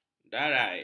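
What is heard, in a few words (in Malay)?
"darai", Malay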